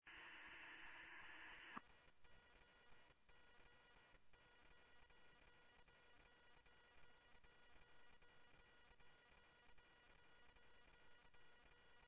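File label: Fire Department, scanner audio trunk; Thompson Peak; M-G FD C1; Mesa-Gilbert-AJF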